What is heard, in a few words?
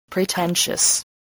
"pretentious", voice, spelling